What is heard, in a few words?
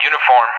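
"Uniform" English, speech; Alphabet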